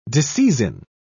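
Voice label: "disseizin", British English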